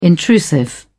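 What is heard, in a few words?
"intrusive", English, uk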